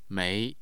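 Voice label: "mei", Mandarin Chinese, tone 2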